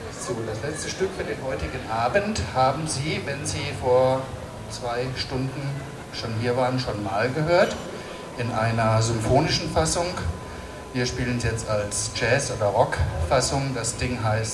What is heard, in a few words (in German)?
Ansage